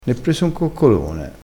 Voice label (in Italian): "coccolone", crespinese